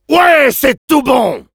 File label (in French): Demoman positive vocalization, fr